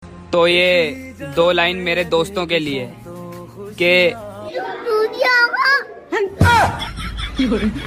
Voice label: Friend Shayari Ringtone

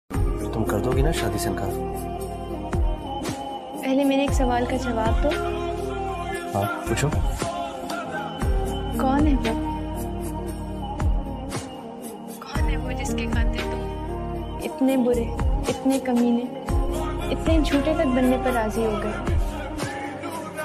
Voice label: Sad Dialogue

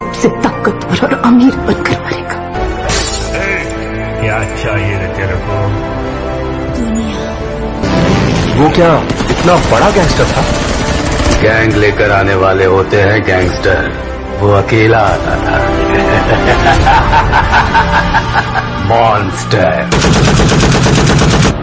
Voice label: Dialogue Ringtone In Hindi; KGF Chapter 1

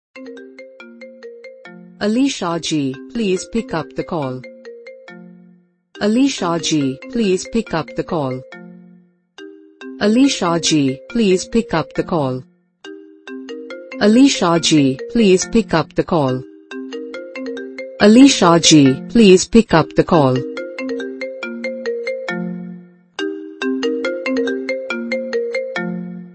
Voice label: Alisha Jee Name Ringtone

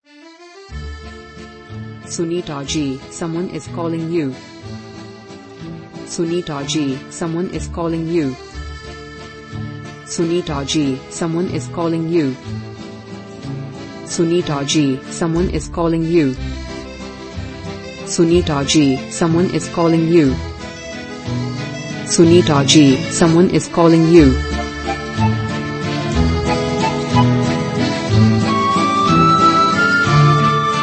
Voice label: Name Ringtone With Music

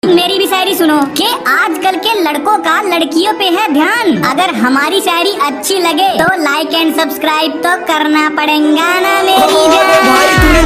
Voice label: Shayari Ringtone

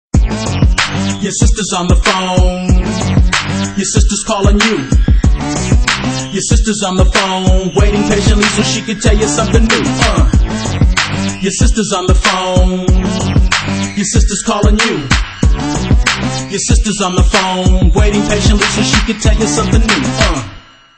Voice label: Your Sister on Phone - English Ringtone

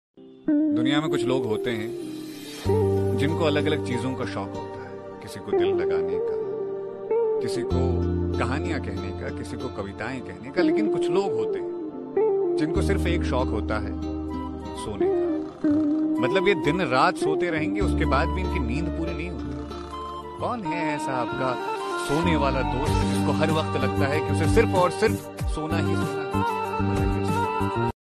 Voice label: Shayari Ringtone

